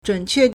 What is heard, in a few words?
"zhǔnquè", Chinese; 准确 (準確); adjective; accurate; exact; precise; Modern Chinese 现代汉语